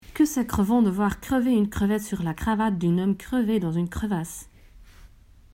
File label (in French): virelangue